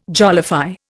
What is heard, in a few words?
"jollify", Indian